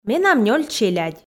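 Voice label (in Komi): менам нёль челядь, Komi, female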